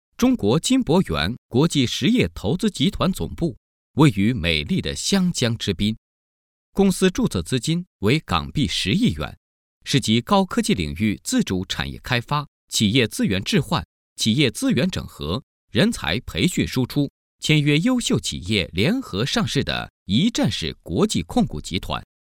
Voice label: 男 企宣, 国语男声配音; 中国金博

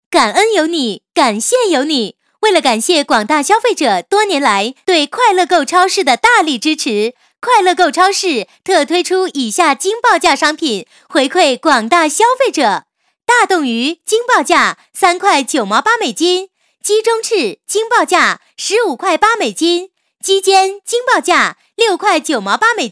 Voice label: 女 促销, 国语女声配音; 快乐购超市

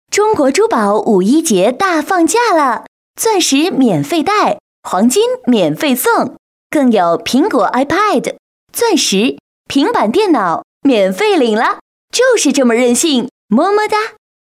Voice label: 女 促销 珠宝节干音, 国语女声配音

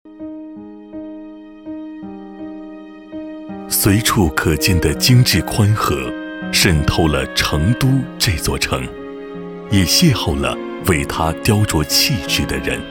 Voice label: 男声, 缓和大气, 国语; 成都职业技术学院; 国语男声配音; 交广主播

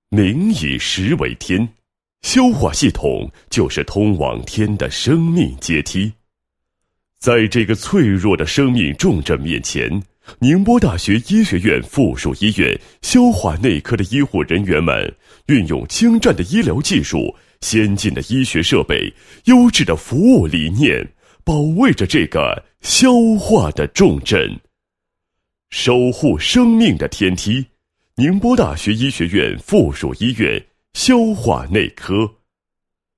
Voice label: 男 医院 消化内科文案, 配音案例

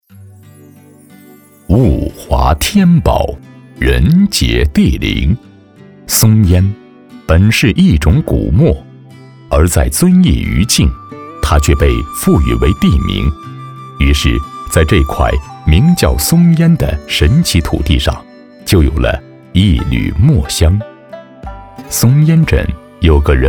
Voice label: 纪录片, 国语男声配音, 浑厚; 博士寨

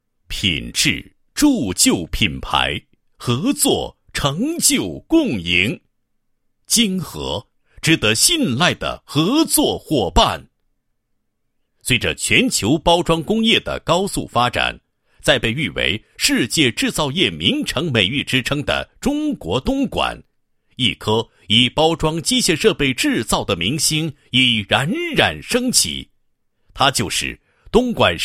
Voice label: 男, 机械制造企业宣传片; 东莞市精合包装机械有限公司宣传片配音

